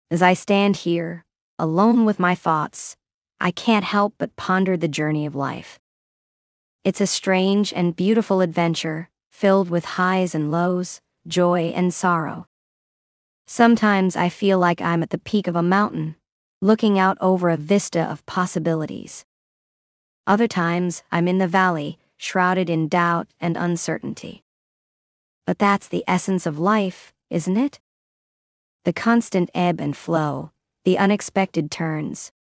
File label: voiceover